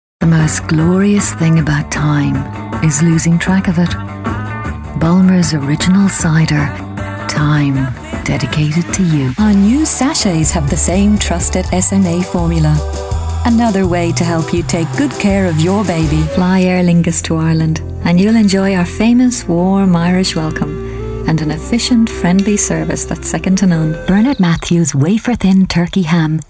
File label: Commercial Showreel